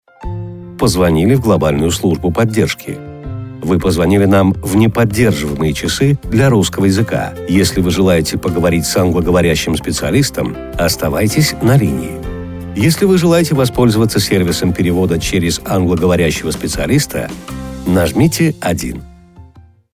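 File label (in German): RU, Russian, Fremdsprachen für Telefonansage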